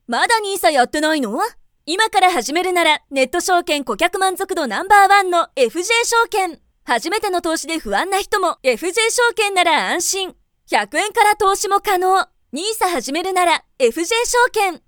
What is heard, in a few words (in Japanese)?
快活, 女性ナレーター